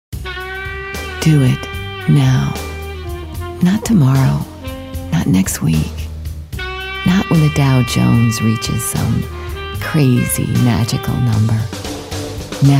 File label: sexy